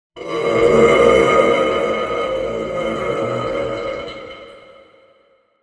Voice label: battlecry; demoman